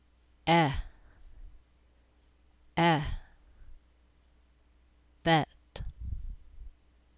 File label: vowel eh